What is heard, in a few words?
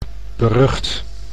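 "berucht", Dutch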